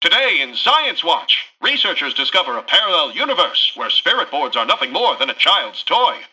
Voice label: Newscaster headline